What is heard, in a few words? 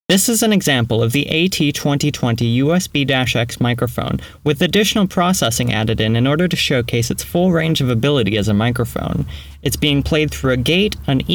Closet Processed; AT2020USB-X; audio technica